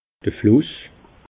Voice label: "fluss", alsace

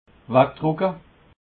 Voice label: "weg drucke", alsace

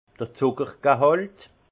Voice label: "zuckergehalt", alsace; vin; teneur en sucre

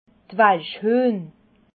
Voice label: "walschhuehn", Alsace

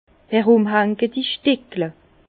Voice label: "erumhanketi", Bas Rhin; vin; particules en suspension

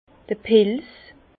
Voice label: "pilz", Bas Rhin; vin; champignon

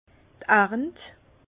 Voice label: "arnt", Bas Rhin; vin; production

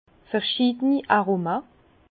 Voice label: "aroma", Bas Rhin; vin; aromes variés

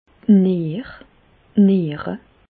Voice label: "nier", Bas Rhin; medecine; reins

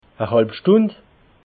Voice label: "halbstund", alsace

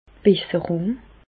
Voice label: "besserung", Alsace, Bas Rhin; medecine; amélioration

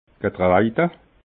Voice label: "getreida", alsace

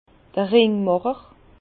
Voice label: "ringmacher", Bas Rhin; vin

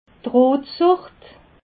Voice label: "reetle", alsace

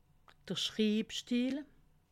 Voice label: "schribstill", alsace

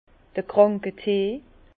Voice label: "kranke tee", Bas Rhin; vin; tisane

